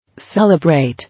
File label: "Celebrate", English